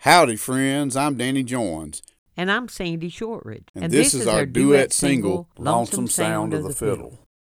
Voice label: Radio Liner